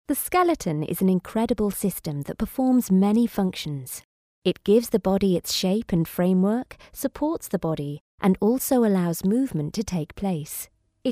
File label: Corporate, UK